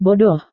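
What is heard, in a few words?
"bodoh", Indonesian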